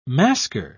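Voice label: "masquer", British English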